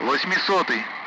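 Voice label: Speech, Russian, 8th; Allied Flight; DCS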